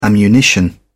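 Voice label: "ammunition", English, British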